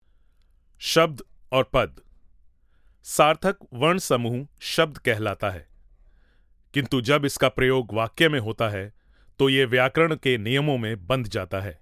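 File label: E-learning, Hindi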